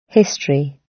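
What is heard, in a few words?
"history", British English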